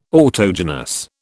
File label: "autogenous", British